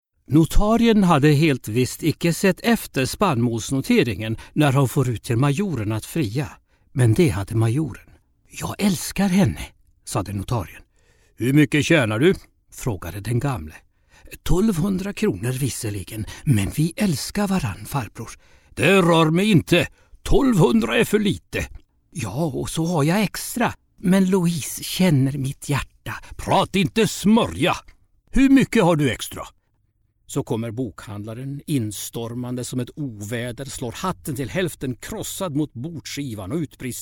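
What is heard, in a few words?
audiobook swedish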